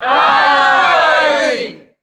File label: crowd saying amen in unison